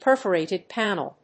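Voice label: "perforated panel", English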